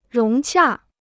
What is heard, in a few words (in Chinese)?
"融洽", Chinese